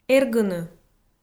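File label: "эргыны", Komi, female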